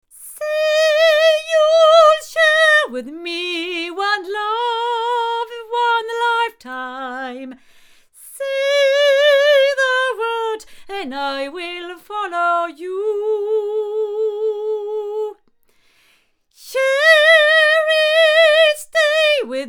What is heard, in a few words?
Avant CV-12 tube cardioid female